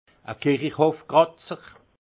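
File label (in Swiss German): "kirichhofgratzer", alsace Haut-Rhin; vin; qui remue les cimetières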